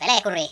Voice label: "coward", Finnish; Worms Armageddon